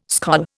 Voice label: "skol", Indian

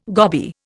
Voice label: "goby", Indian

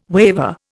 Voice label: "waver", Indian

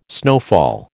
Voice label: "snowfall", American

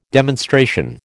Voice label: "demonstration", American

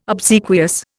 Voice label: "obsequious", Indian

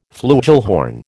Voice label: "flugelhorn", American

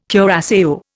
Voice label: "curaÇao", Indian

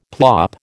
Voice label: "plop", American

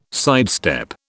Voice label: "sidestep", British

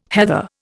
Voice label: "heather", Indian

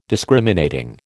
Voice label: "Discriminating", American

